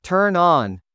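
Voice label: "turn on", English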